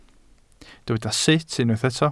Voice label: "sut", Welsh